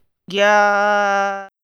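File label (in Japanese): "ぎゃ", Japanese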